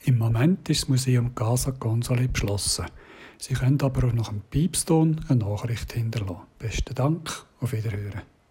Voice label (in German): Ansage; KCC